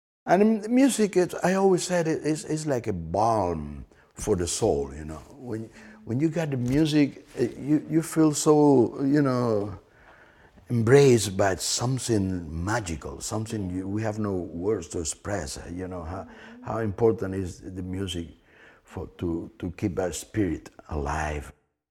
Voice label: Commentary; Arturo Sandoval